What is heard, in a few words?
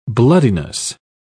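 "bloodiness", British English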